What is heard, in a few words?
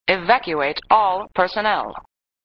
Voice Computer "Evacuate all personnel"